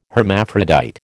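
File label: "hermaphrodite", American English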